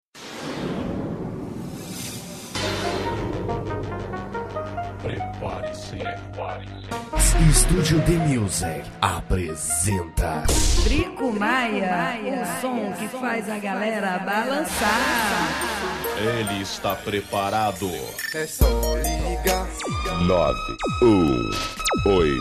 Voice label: anuncio pra radio

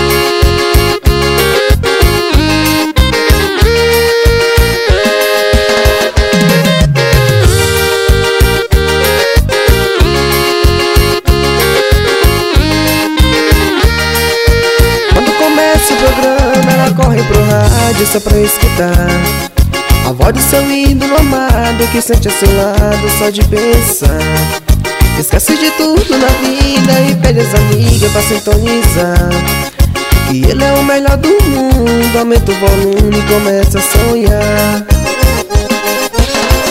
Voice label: teclados locutor

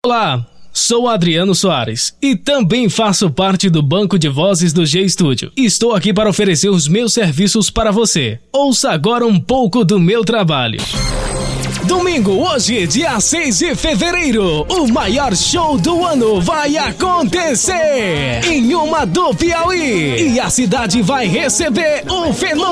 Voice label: offs locucao

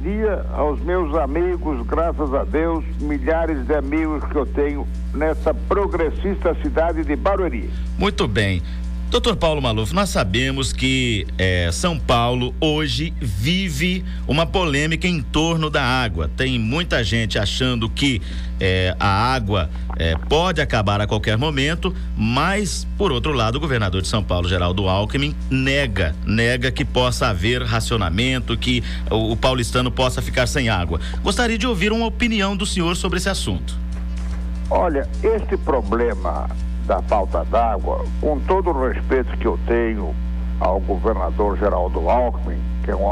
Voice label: show da manha entrevista, radio; com o deputado paulo maluf; radio regional fm

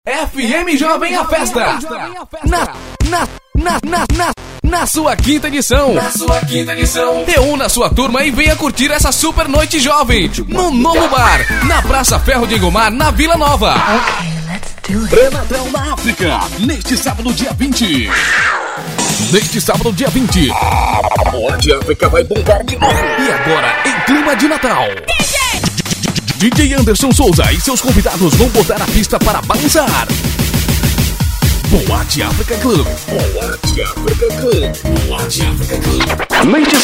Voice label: locutor mix

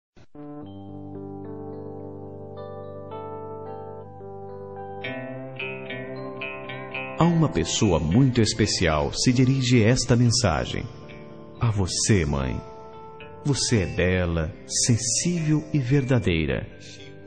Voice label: telemensagem de aniversario para mae com voz masculina

